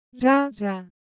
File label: Japanese Sound, Ideo Phones